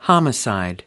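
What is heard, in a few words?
"homicide", American English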